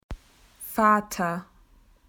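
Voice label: "dad", Australian English; PictureDictionary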